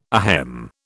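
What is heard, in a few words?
"ahem", British English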